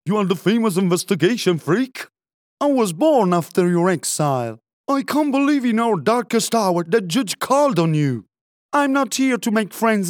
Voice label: english video games

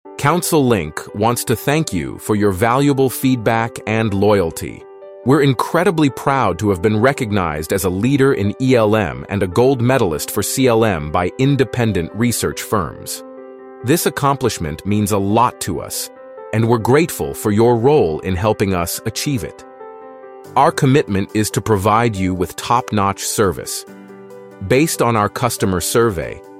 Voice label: english american corporate videos

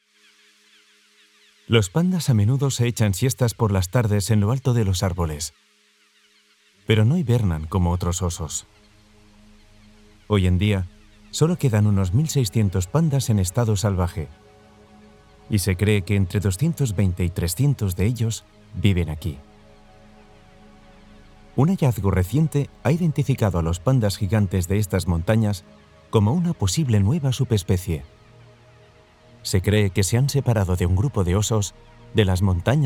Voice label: spanish documentaries

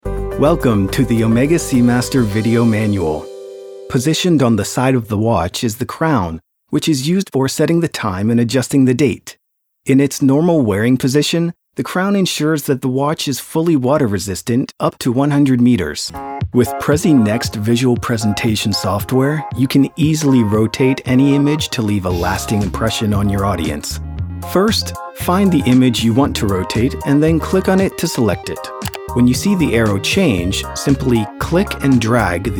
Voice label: english american e-learning

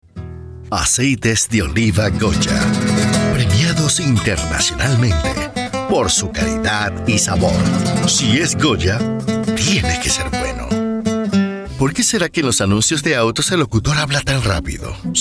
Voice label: spanish puerto rican commercial

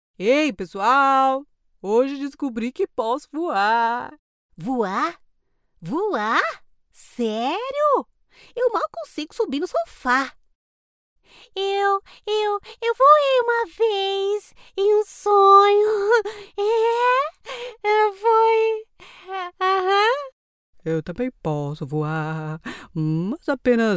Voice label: portuguese brazilian animation

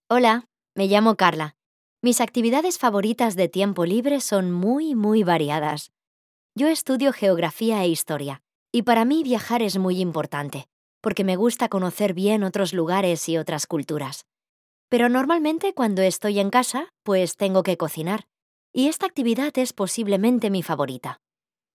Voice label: spanish narration

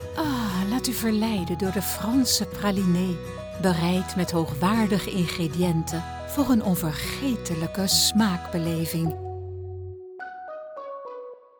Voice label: dutch promos

